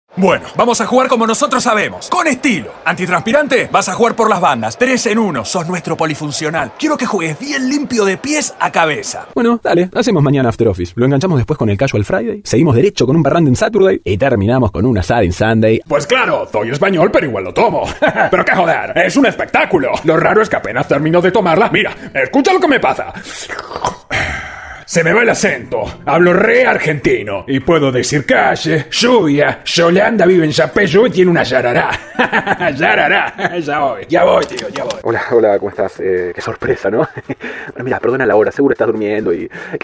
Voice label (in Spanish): comerciales actings